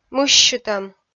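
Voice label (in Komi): "мыссьытӧм", Komi, female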